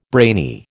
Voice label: "brainy", American